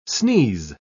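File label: "sneeze", English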